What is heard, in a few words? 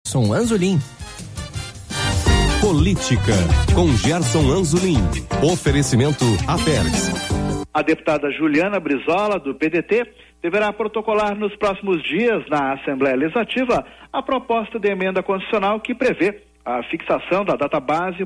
Rádio FM; BandNews